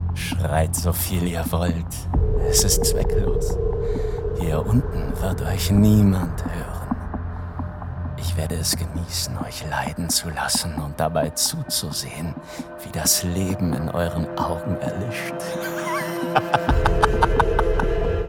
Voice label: german video games

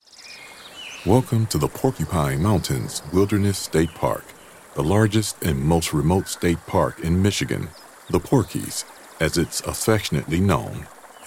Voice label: english american audio guides

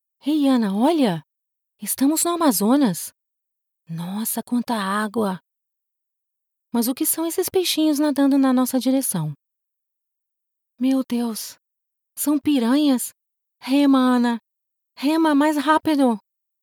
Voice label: portuguese brazilian audiobooks

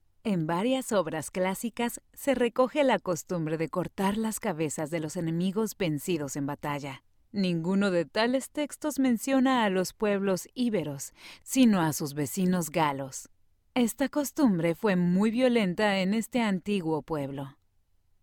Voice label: spanish mexican narration

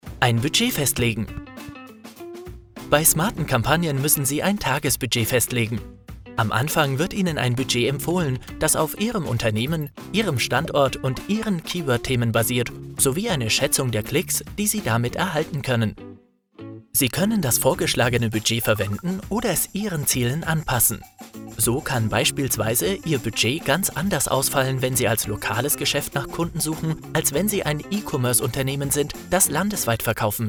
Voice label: german explainer videos

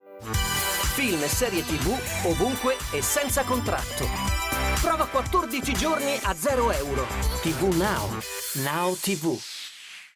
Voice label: italian promos

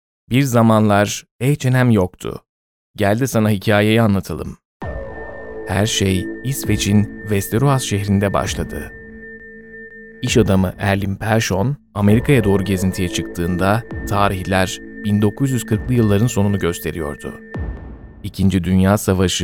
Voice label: turkish e-learning

